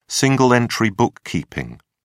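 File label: "single-entry bookkeeping", British English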